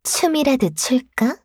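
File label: acceleration Line, Voice Line, Korean; Eternal Return; Garnet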